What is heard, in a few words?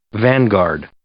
"vanguard", English